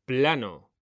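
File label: "plano", Spanish, male; mekanta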